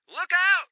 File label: Radio Chatter "Look out!"